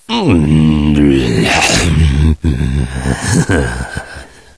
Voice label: snarl; svencoop; echoes; gman